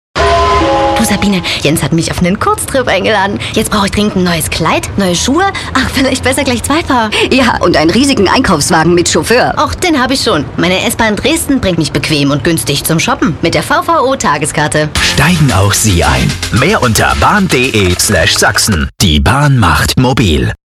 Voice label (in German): Radiospot; Bahn Sachsen